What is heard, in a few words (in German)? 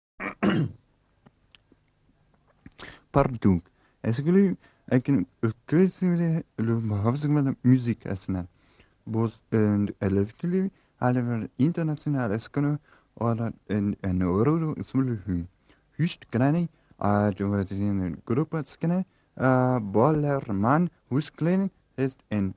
Ansager